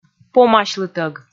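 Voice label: "помасьлытӧг", Komi, female; kpv audio dict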